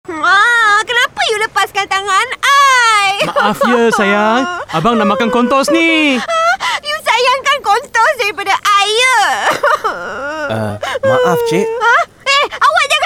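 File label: Malay; CORNTOZ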